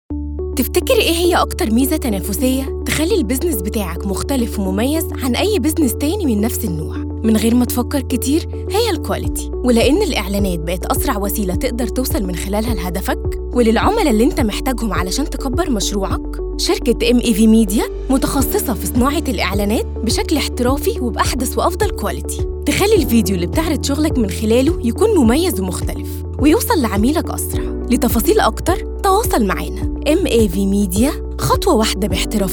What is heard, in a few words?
Voiceover in the Egyptian dialect